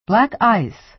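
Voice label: "black ice", American English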